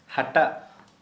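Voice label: Santali male winnowing fan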